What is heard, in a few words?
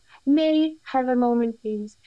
Voice Acting, es, F0